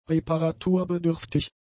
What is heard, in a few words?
"reparaturbedürftig", German; adjectives